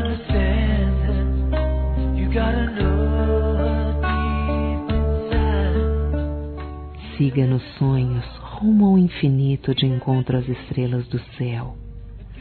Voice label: boa noite amor f, fem; Dia Dos namorados; Telemensagens 2017

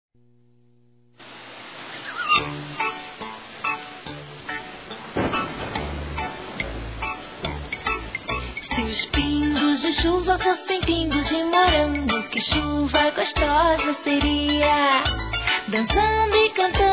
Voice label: Aniversário Neta Masculino; Telemensagens 2017; Aniversários; Aniversário Infantil

